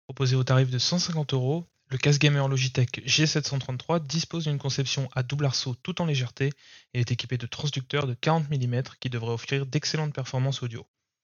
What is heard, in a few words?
G733 audio microphone blue voice